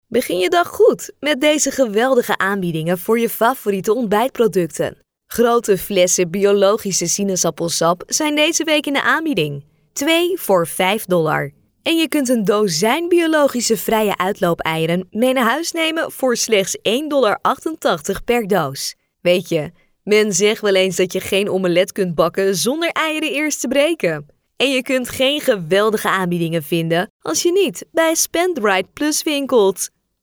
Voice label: Casual Conversational